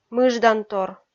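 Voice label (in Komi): "мыждантор", Komi, female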